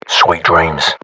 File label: enemy down, radyo; xect escape